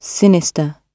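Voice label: "sinister", British English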